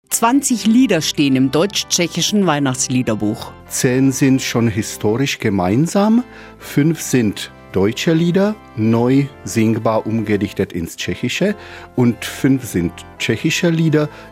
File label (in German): RADIO Interview